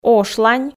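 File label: "ошлань", Komi, female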